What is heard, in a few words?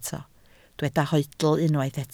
"hoedl", Welsh